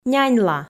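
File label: "няньла", Komi, female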